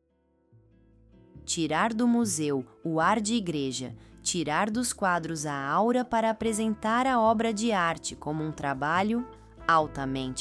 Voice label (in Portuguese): narracao; foto citacao tirar do museu